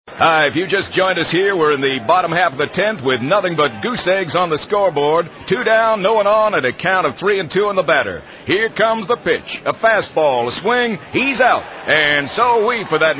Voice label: baseball announcer ringtone